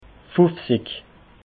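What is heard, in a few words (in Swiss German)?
"fufzig", Alsatian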